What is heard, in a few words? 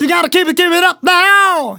Phrase Male; Vengeance Samples